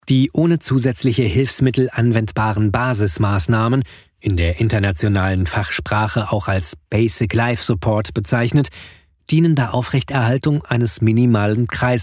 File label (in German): e-learning medizin